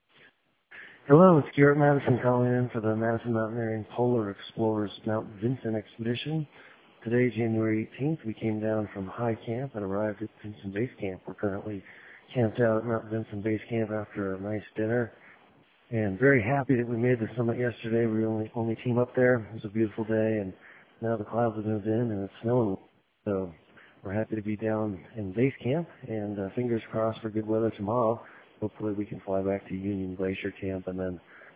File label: voicemail